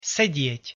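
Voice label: "sedieť", Slovak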